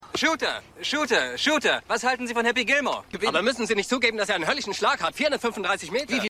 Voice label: Reporter; Happy Gilmore

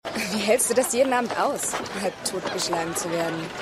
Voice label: Frau, Stimmproben; Revolution

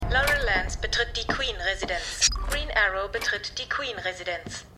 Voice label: Computerstimme; Felicitys; Arrow

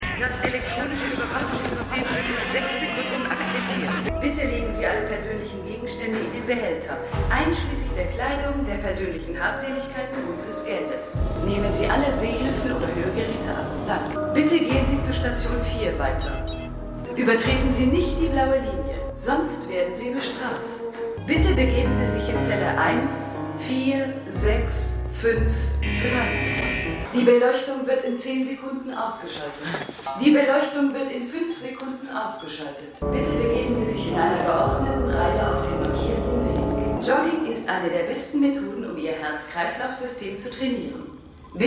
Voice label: Lautersprecherstimme; Outer Limits

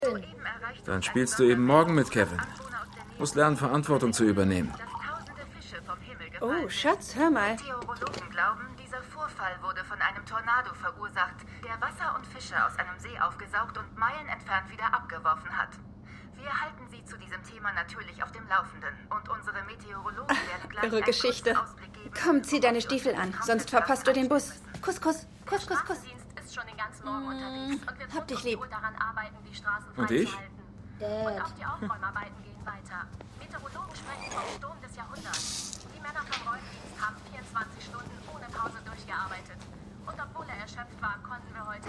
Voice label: TV Nachrichtensprecherin; Fargo

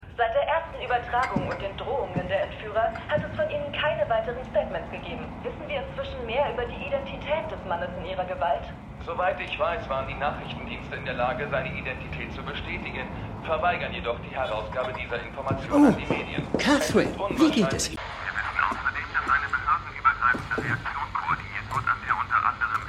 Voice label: Nachrichtensprecherin; Steve Johnston; Slow Horses

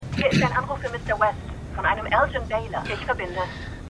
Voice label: Telefonstimme Hotelrezeptionistin; Winning Time

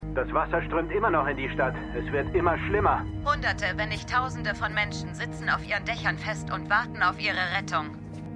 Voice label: Reporterstimme; MEMORIAL HOSPITAL - DIE TAGE NACH HURRIKAN KATRINA (DF 2022); Five Days at Memorial

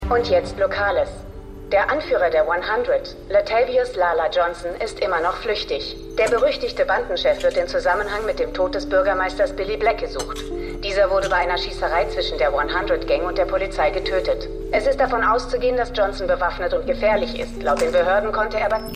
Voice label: Nachrichtensprecherin; Black Lightning

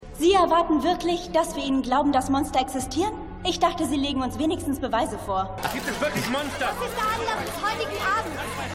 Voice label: Reporterin, Stimmproben; Cindy

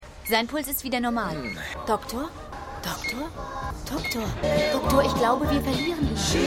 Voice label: Krankenschwester, Stimmproben; Seinfeld

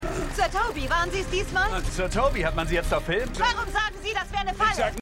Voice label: Boulevardreporterin Boulevardreporter, Stimmproben; LO SVU

